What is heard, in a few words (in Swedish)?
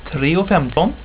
"tre och femton", swedish